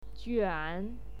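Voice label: "juan3", Mandarin Chinese syllable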